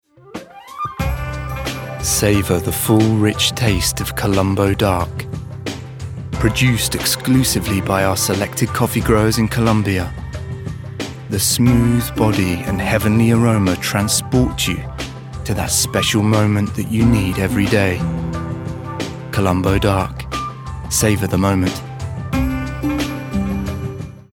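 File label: British soft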